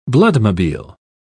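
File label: "bloodmobile", British English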